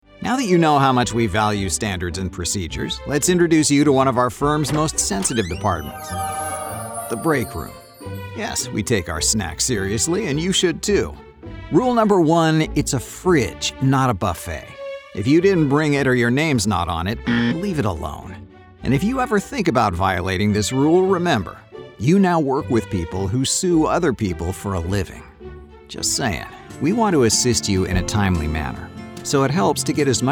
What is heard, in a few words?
elearning, commercial voiceover